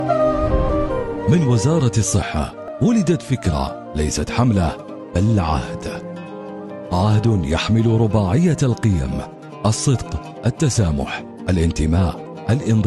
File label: arabic medical narration